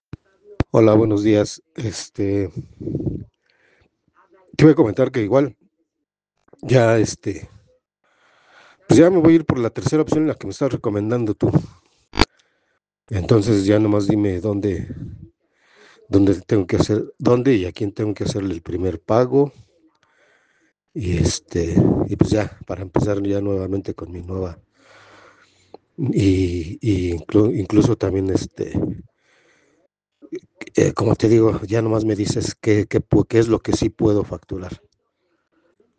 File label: whatsapp ptt